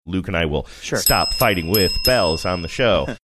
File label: saying and i will stop fighting with bells dinged bell emphasis